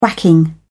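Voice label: "whacking", British English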